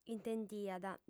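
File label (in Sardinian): "intendiat", female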